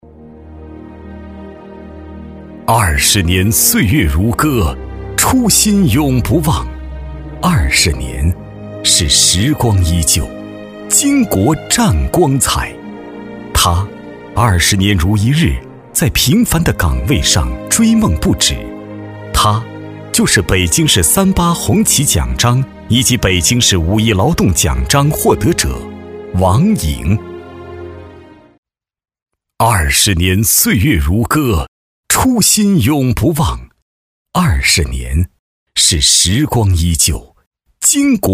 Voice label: 男; 人物; 劳模

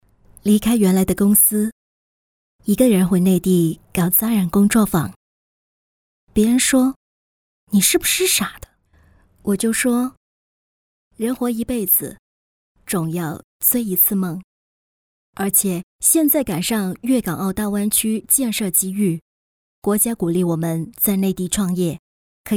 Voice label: 女【国语】人物旁白

